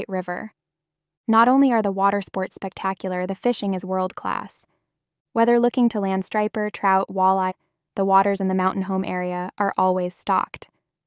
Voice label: tour arkansas female, fake sponsors